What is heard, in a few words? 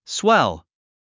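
"swell", English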